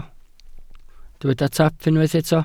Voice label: "taap", Welsh

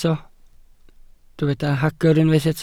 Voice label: "hagr", Welsh

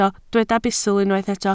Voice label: "bustl", Welsh

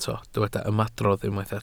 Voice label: "ymadrodd", Welsh

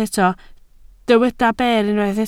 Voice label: "ber", Welsh

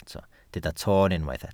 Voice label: "toon", Welsh

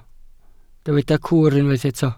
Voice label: "cwr", Welsh

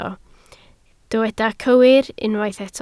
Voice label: "cywir", Welsh

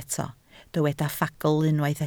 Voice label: "ffagl", Welsh

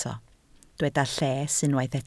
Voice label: "lles", Welsh

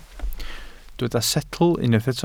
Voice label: "setl", Welsh

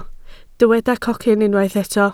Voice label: "cocyn", Welsh

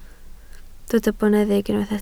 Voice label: "boneddig", Welsh

